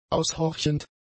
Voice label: "aushorchend", German; adjectives